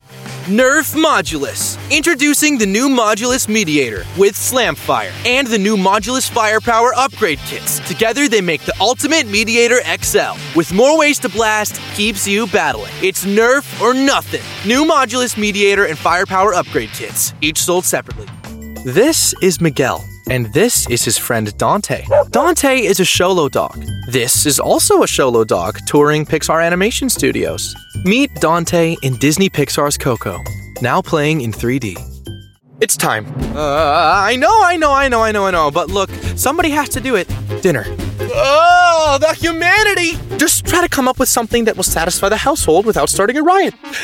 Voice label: Commercial